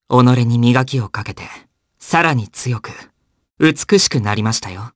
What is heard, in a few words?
vox dia jp; Fluss; kingsraid